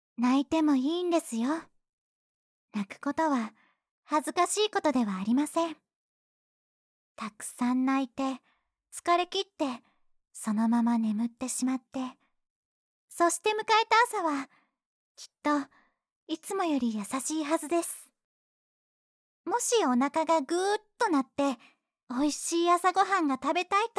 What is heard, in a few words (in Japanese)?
ボイスサンプル（穏やかな女子）